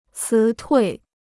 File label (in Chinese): "辞退", Chinese